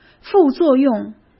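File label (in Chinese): "副作用", Mandarin Chinese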